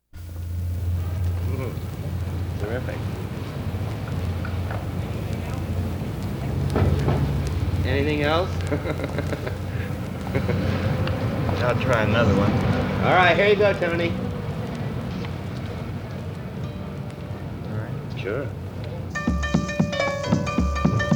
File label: Conversation and Music; At 51st St 6th Ave New York City